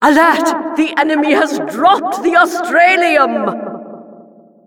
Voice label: announcer possession